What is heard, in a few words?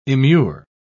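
"immure", British English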